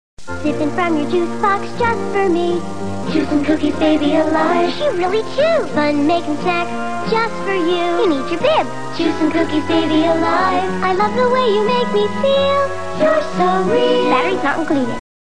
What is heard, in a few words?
commercial; Baby Alive